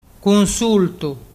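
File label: "cunsultu", Vernazzese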